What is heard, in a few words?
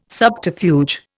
"subterfuge", Indian